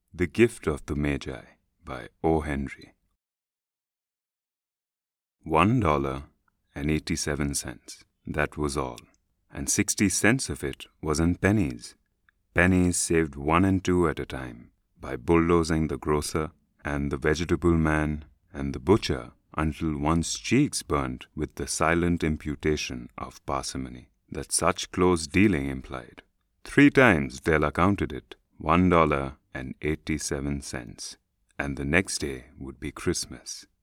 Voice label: english indian audiobooks